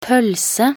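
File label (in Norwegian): "pølse", Norwegian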